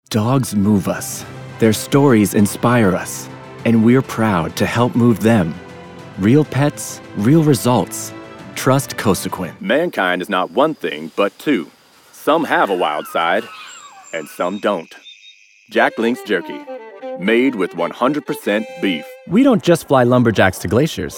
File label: Commercial